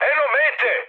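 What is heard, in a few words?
Serbian near waypoint mood high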